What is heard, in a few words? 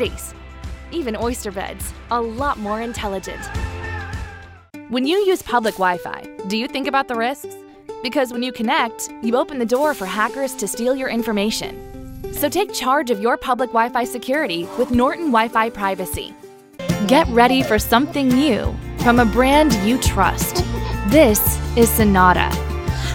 Explainer Internet